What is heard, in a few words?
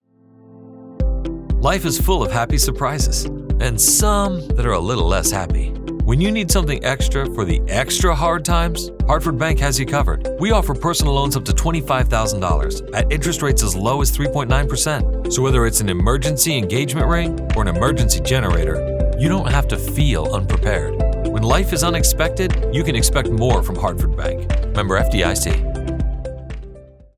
Bank Commercial